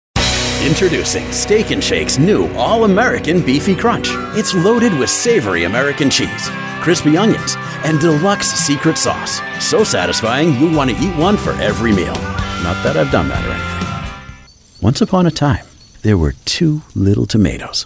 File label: Commercial